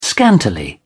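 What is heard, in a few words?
"scantily", British English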